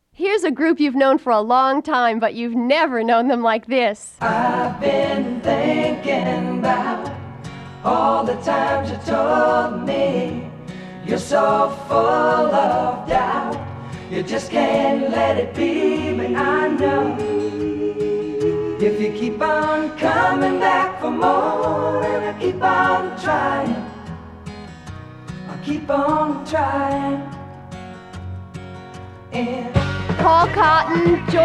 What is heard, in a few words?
Radio Commercials LP; 1975